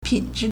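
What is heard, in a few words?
"pin3zhi3", Mandarin Chinese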